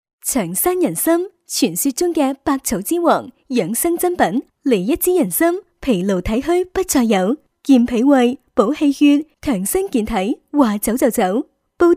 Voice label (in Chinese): 粤 女 广告; 长山人参